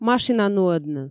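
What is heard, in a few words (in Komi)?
"машина нуӧдны", Komi, female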